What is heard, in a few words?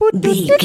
voice fun character cute cartoon